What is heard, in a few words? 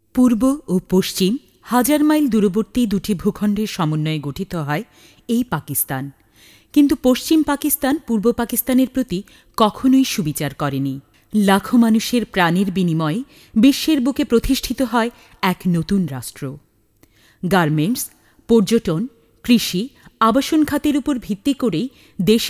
female bangla